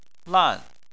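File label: "lan", Chinese, fourth tone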